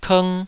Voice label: "kng2", taiwanese syllable